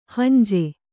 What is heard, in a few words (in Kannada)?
"ಹುಂಜಿ", Kannada